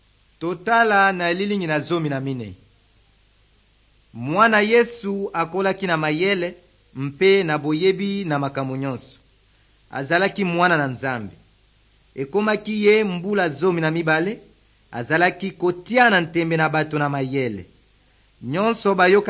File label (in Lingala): good news lingala west, drama